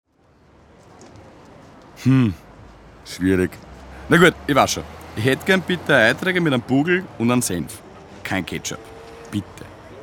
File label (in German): Dialog Staatsoper Dialektversion